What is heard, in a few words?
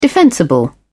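"defensible", British English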